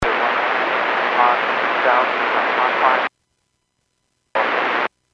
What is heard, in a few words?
Airports 122.7, Radio; KSTE